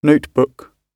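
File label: "notebook", British English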